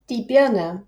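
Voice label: "Birne", German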